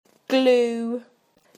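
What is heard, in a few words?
"gloves", British English; PictureDictionary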